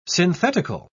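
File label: "synthetical", English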